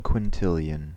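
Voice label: "quintillion", US English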